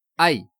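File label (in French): "aie", French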